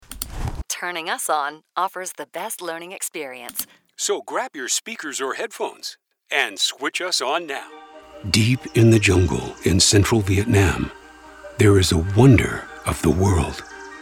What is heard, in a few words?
Documentary